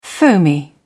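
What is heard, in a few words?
"foamy", British English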